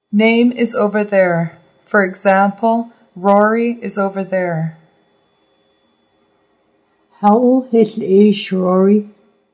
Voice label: Name is over there, Nuu-chah-nulth language phrases